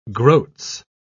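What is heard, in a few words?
"groats", British English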